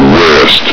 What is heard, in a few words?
"west", Combine soldier; hl2